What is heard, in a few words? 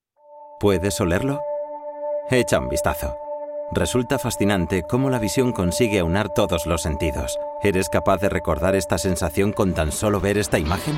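Spanish, male, corporate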